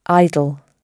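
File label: "idol", British English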